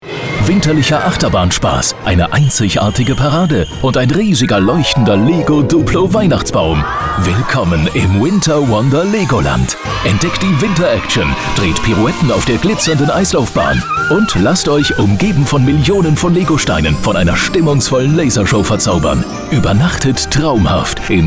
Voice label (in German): Werbung magisch; LOFT VOICES